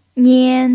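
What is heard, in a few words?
"nian", first tone, Mandarin Chinese pinyin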